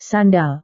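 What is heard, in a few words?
"sandal", Indonesian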